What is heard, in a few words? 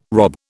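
"rob", British English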